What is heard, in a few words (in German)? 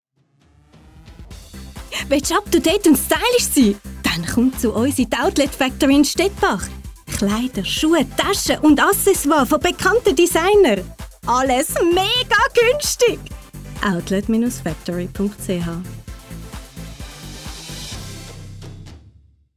sprecherin werbung; Outlet-Factory